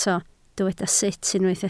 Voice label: "sut", Welsh